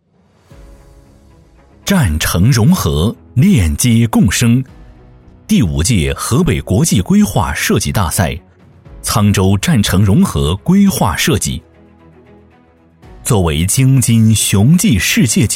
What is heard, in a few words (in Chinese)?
多媒体 项目规划, 男; 沧州站城